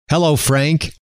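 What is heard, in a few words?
"hello", male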